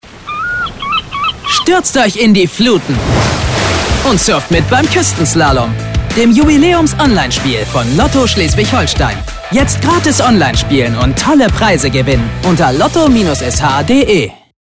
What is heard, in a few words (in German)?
werbung lotto; schleswig-holstein